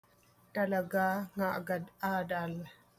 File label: Ilocano; actually learning - talaga nga agad-adal